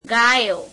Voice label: gujarati cows